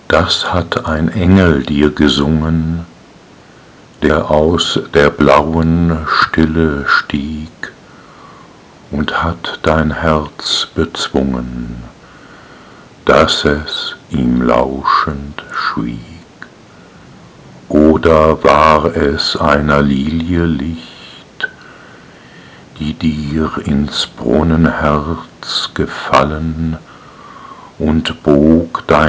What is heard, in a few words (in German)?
Die weibliche Stimme